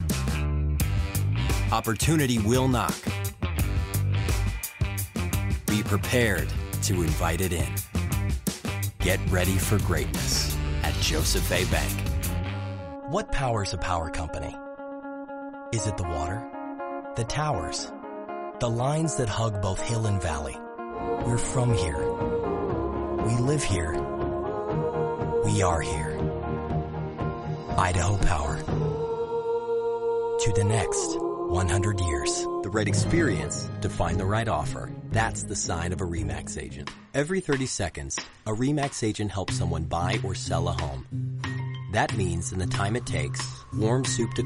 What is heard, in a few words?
Television Commercial VO